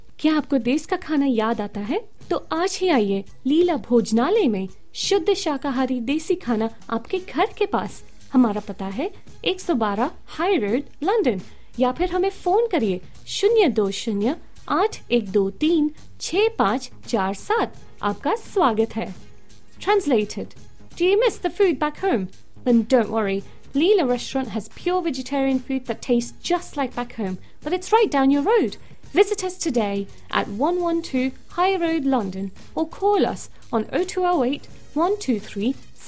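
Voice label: Hindi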